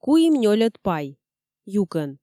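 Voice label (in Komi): "куим нёльӧд пай юкӧн", Komi, female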